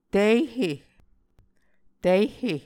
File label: "tihe", Muscogee